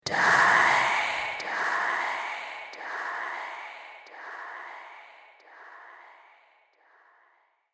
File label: "Die", Evil Voice Echo; Talking Sound Effects; fantasy sound effect